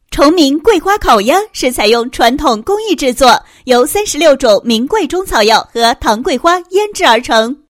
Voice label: 女-广告; 崇明桂花烤鸭

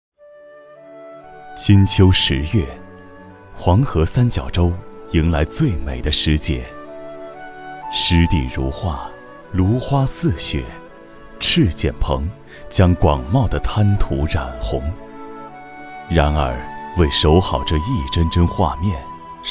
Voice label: 男 年轻 平稳专题

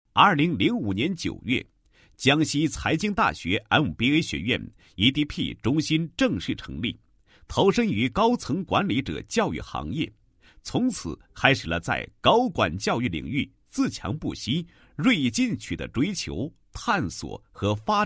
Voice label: 男; 学校; 大学MBA学院EDP中心宣传

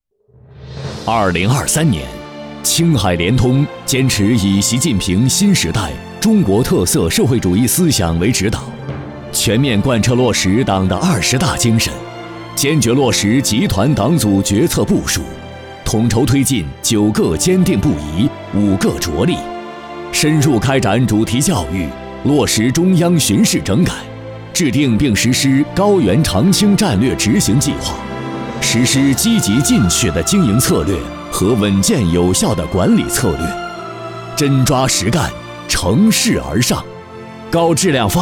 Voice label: 男 专题 大气 正式; 青海联通

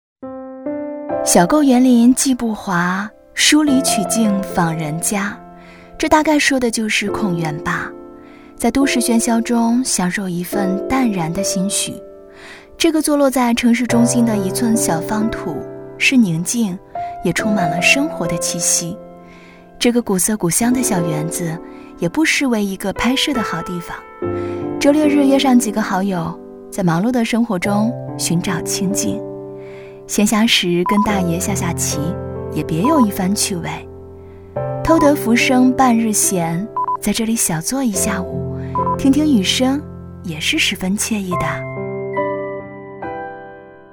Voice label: 女 短视频; 小构园林

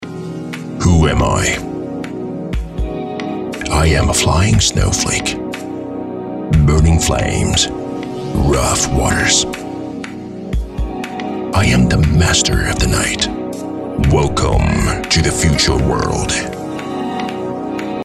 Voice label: 英 男 美式 广告 中年音色

